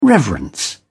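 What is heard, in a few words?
"reverence", British English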